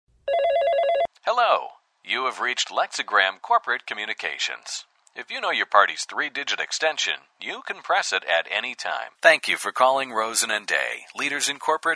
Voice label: male US telephone; uk sound studios